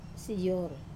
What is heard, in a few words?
"sayor", see, wamesa